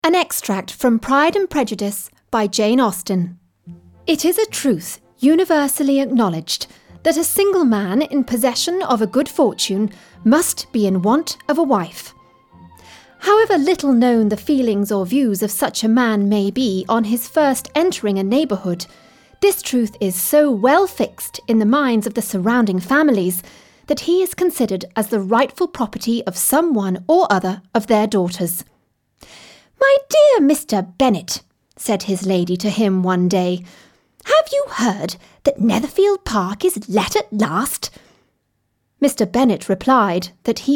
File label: Narration